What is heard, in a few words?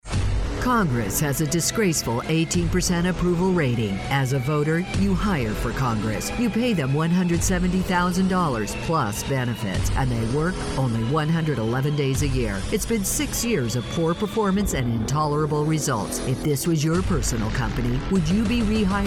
Political Female, Commercial Voiceover, Political Campaigns